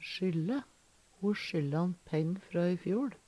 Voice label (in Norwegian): "sjylle", Numedalsmål; Ho sjylla`n peng frå i fjoL.; Verb; Veggli